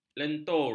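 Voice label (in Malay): "lentur", Malay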